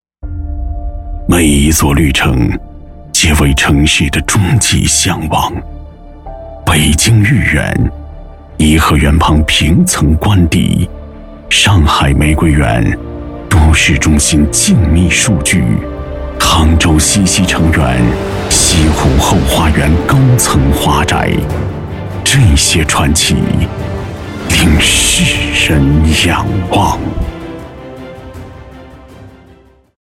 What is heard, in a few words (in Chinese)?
男 高端地产; 绿城明月江南